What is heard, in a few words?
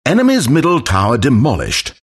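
announcer tower fall enemy mid; Dota 2; stanleyparable announcer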